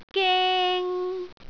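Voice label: "geng1", Cantonese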